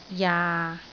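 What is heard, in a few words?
"jaa6", Cantonese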